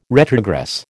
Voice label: "retrogress", American